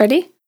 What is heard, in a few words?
"Ready", Vocal Samples, Words